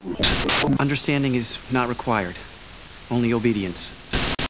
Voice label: STATIC; Babylon 5; Lennier